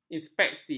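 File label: "inspeksi", Malay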